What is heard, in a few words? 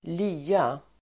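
"lya", Swedish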